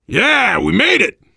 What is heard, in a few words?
world farmhouse, voice, biker